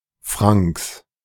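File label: "Franks", German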